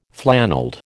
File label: "flannelled", American